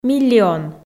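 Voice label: "миллион", Komi, female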